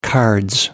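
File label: "cards", British English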